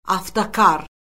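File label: "avtokar", Azerbaijani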